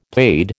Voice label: "paid", American